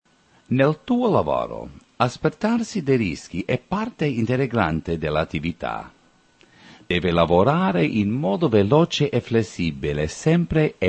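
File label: Italian male voice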